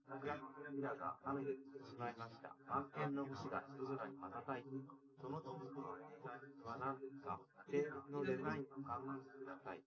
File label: male, live rec